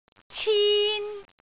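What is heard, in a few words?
"cin1", Cantonese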